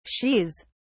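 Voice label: "shes", British English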